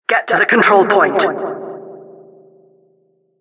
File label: announcer cap incite